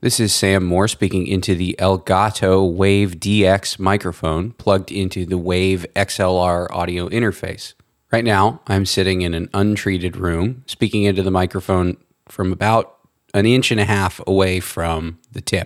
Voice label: XLR Clipguard; Elgato Wave DX